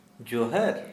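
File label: Santali, male, hello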